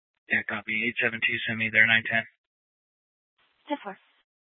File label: Mesa PD Central Dispatch, scanner